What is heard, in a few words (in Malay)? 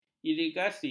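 "irigasi", Malay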